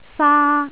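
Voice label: "saa1", Cantonese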